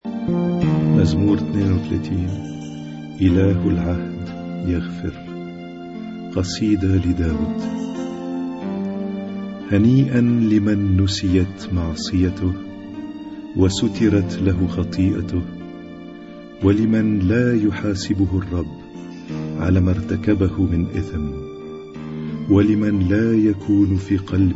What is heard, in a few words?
Arabic Psalm Zaboor